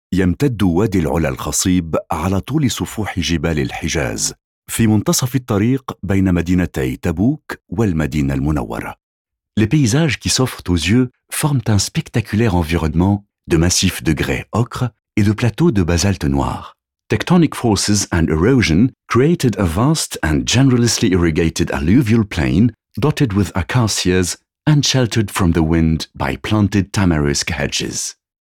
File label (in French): Expo Ima TRILINGUE